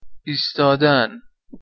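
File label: "istadan", Persian, male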